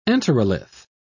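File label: "enterolith", British English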